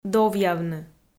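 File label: "довъявны", Komi, female; kpv audio dict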